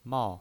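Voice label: "mao", pinyin voice, Mandarin Chinese, fourth tone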